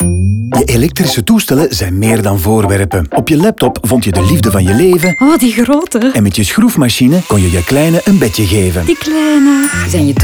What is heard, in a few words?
radio nl music intro; recupel